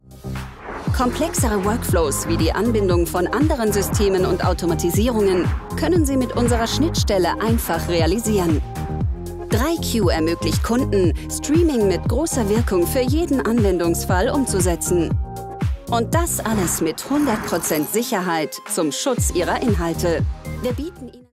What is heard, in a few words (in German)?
Imagefilm freundlich erklaerend